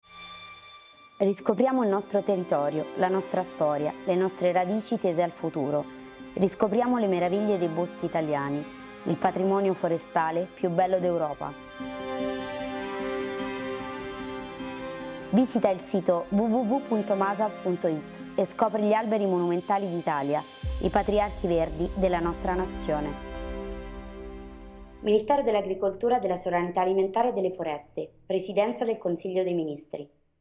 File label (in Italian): SPOT SOLO AUDIO; GIORNATA INTERNAZIONALE DELLE FORESTE